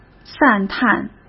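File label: "赞叹", Mandarin Chinese; hsk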